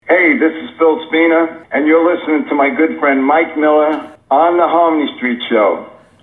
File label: promo